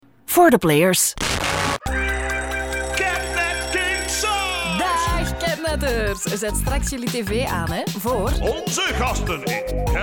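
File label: Flemish Female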